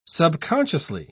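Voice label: "subconsciously", British English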